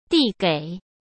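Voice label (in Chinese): "递给", Mandarin Chinese; chinese-flashcards; hsk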